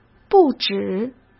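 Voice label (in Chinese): "不止", Mandarin Chinese; chinese flashcards; hsk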